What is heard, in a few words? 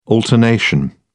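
"alternation", British English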